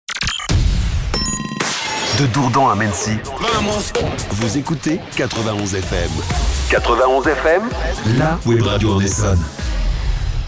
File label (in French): Jingle De Dourdan à Mennecy; Transitions